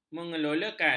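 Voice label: "mengelolakan", Malay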